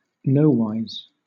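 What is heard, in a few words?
"nowise", British English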